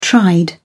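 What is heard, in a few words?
"tried", British English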